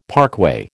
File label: "parkway", American